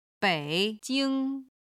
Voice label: "bei3 jing1", Chinese